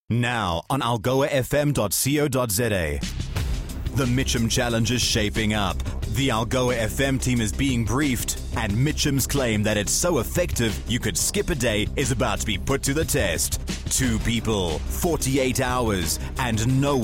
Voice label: HIT RADIO